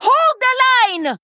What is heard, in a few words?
Female Dwarf Attack